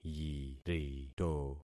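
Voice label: "yirito", concatenated syllables; Affixing experiment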